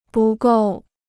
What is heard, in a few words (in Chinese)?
"不够", Chinese